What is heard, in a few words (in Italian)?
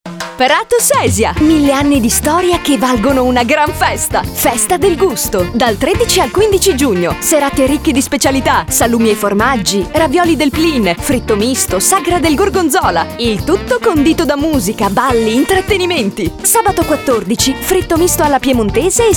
Spot Radio; festa del gusto 2014